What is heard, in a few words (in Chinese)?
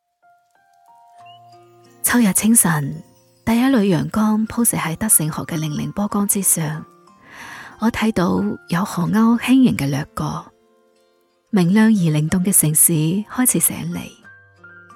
粤语独白 顺德水乡